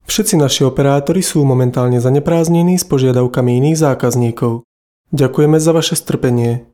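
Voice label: Slovak male voice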